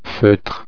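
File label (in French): "feutre", French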